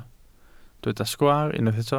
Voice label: "sgwaar", Welsh